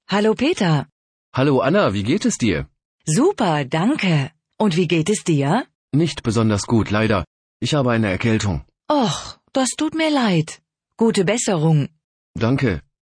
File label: dialog